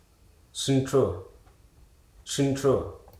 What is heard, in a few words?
"Grey backed Shrike", Hruso